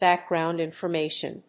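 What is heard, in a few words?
"Background Information", English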